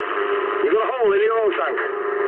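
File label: damaged oil mood medium, speech English; War Thunder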